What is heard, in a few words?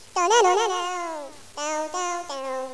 children chatter; valve